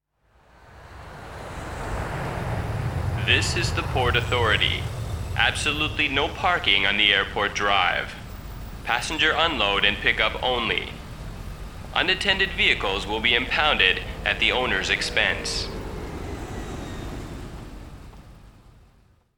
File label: Airport Announcement